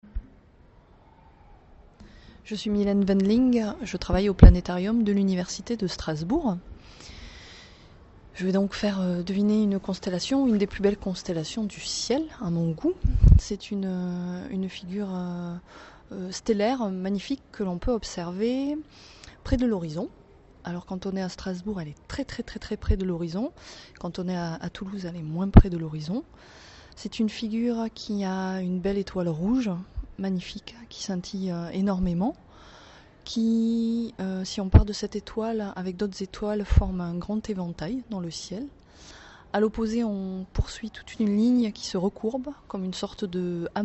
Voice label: French; Sco Game